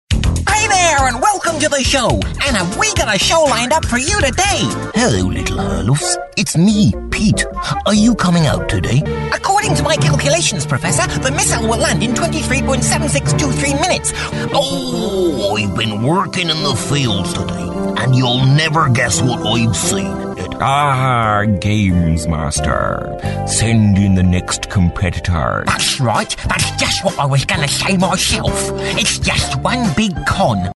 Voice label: Cartoon